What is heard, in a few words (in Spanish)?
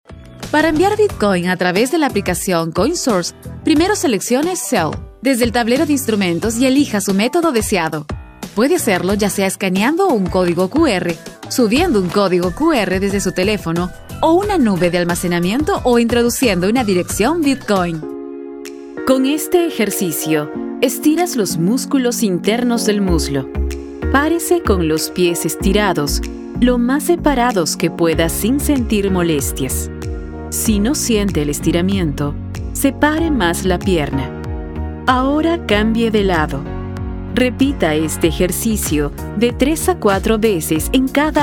explicativo